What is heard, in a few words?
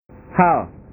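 "hal", Indonesian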